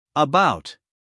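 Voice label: "about", American English, male; everyone-can-use-english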